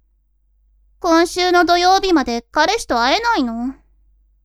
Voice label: women